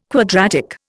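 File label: "quadratic", Indian English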